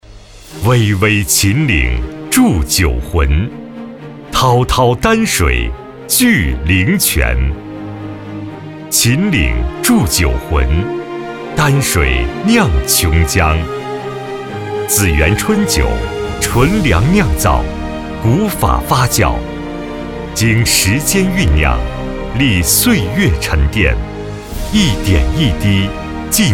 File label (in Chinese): 男 宣传厚重磁性